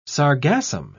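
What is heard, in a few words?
"sargassum", British English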